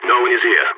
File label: cleared area, balkan